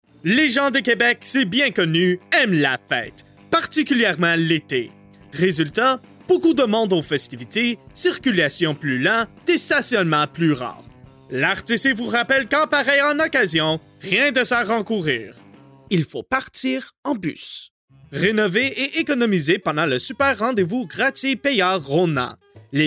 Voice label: French; professional male actor from Montreal, Canada